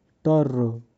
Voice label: "tarro", np; grade1; tastes